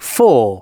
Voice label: announcements male

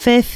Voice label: announcements female

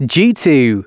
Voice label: announcements male

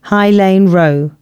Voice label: announcements, female

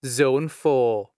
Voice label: Zone 4, announcements male

